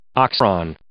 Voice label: "oxymoron", English